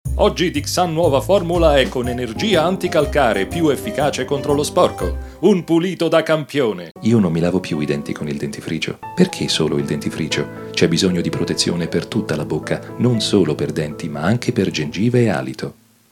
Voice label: italien